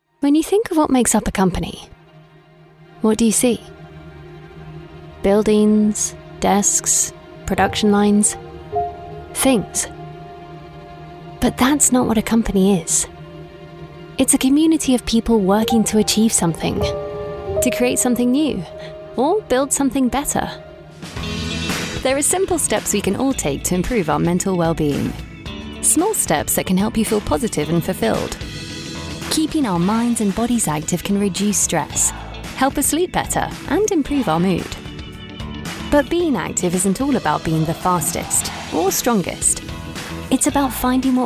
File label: corporate